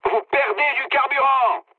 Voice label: tank damaged mood med, speech fr; War Thunder